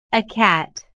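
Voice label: "a cat", English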